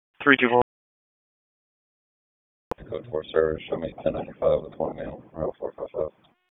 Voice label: Police Northeast District Disp, p25